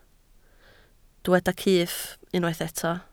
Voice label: "cyff", Welsh

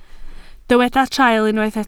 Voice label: "traul", Welsh

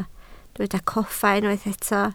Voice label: "coffa", Welsh

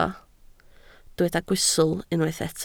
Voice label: "gwystl", Welsh

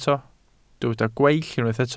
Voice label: "gweill", Welsh

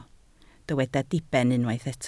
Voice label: "diben", Welsh

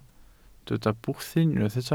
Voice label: "bwthyn", Welsh

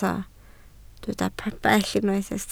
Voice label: "pabell", Welsh

